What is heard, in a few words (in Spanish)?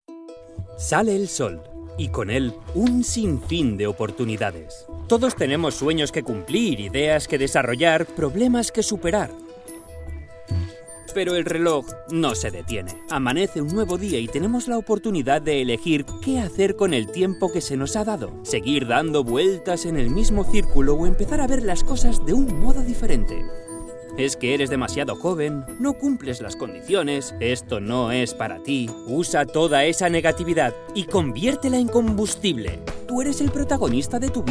Video Animacion, Hoy es un buen dia, Motivacional; Animation Video - Motivational